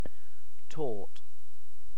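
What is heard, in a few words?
"torte", British English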